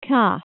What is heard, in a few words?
"cat", welsh